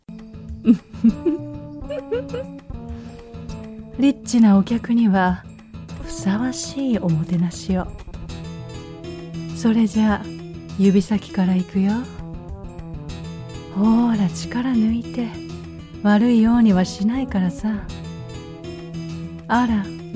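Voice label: Japanese, Video Game; GoLocalise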